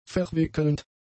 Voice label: "verwickelnd", German; adjectives

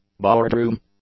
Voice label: "boardroom", English; nouns

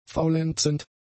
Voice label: "faulenzend", German; adjectives

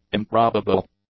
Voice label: "improbable", English

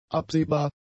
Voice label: "absehbar", German; adjectives

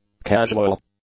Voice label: "casual", English; adjectives

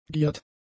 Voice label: "giert", German; adjectives

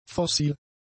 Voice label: "fossil", German; adjectives

